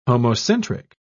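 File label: "homocentric", British English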